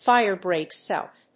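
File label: "fire breaks out", English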